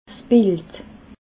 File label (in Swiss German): "bild", alsace